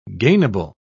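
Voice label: "gainable", British English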